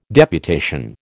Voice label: "deputation", American

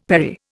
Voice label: "perry", Indian; Dictionary

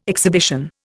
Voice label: "exhibition", Indian

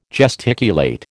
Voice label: "gesticulate", American

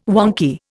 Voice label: "wonky", Indian

